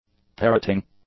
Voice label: "parroting", English; adjectives